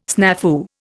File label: "snafu", Indian